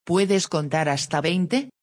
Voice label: numbers, spanish; beginner level phrases